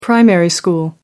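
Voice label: "primary school", British English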